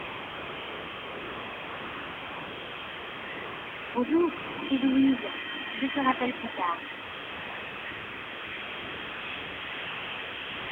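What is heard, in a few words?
double microphone on, phone; fr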